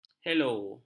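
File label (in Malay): "helo", Malay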